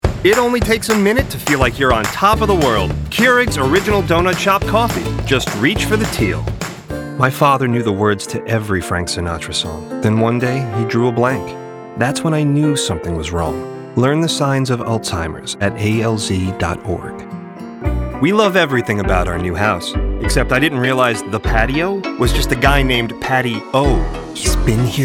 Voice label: commercial, english male voices american accent